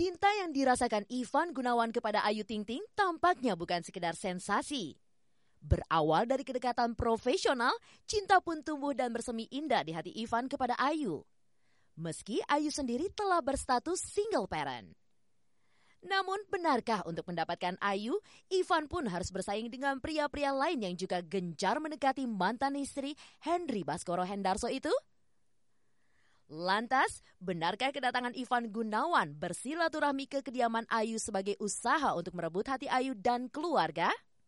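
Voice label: Indonesian Bahasa female corporate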